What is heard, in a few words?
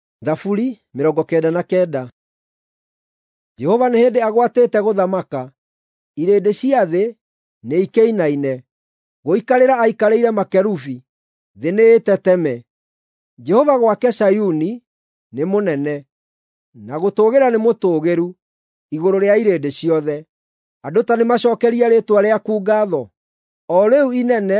psalms, gikuyu drama